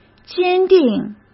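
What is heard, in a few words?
"坚定", Mandarin Chinese; chinese flashcards; hsk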